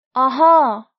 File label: "ahaa", Nepali; grade1